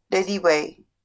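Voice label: "reriwei", Māori; railway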